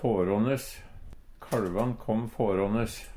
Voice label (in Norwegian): "fårånes", Numedalsmål; farande; Kalvan kom fårånes.